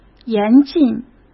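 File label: "严禁", Mandarin Chinese; hsk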